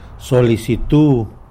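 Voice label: "application", Tlacolula Zapotec; solisitu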